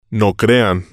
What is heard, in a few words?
"no crean", Spanish